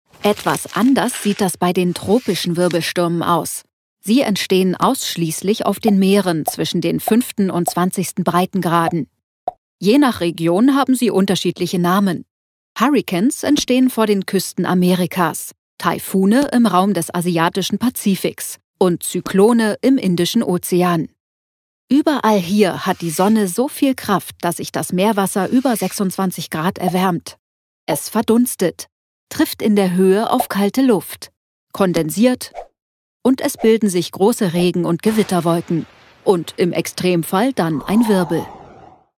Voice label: german e-learning